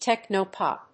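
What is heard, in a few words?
"Techno Pop", English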